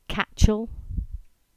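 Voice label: "Catchall", English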